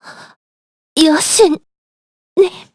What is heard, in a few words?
Dead, kr; Maria L; kingsraid; voices heroes